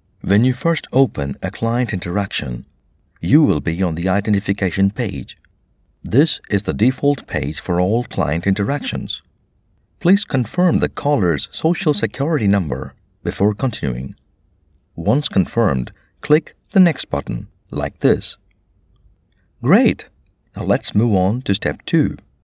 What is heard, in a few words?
English Indian eLearning Tutorial